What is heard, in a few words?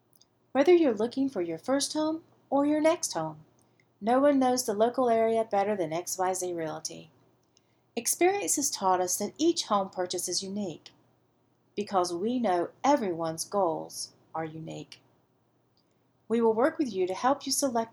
Real Estate Recordings, voice over; XYZ Realty